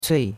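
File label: "cui4", Chinese; Cours de Chinois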